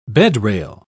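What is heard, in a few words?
"bedrail", English, British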